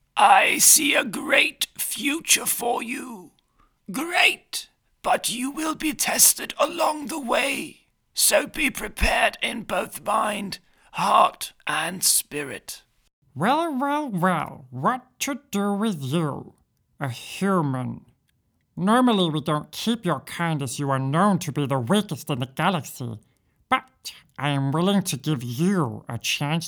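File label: Character English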